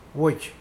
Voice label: "whistle", Mokilese

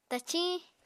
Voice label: "taciñ", Apatani

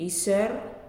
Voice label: Broom, Nalik

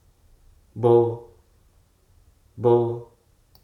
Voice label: "invite", hruso

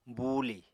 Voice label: "buulì", Apatani

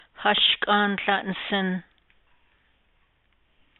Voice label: navajo; I want a banana; Continuative Imperfective (CI)

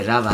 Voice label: "root", Chug

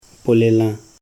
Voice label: red bird, Koro

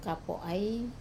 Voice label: "kapo ai", wood mushroom, wamesa

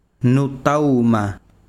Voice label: besiro pajaro, chiquitano

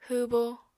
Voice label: "hwwbo", apatani

